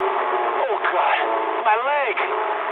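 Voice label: English damaged pilot mood low; War Thunder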